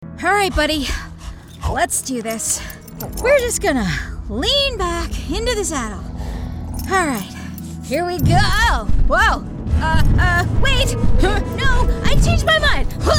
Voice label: Animation; BOSSY FRIENDLINESS. YOUTHFUL RESONANCE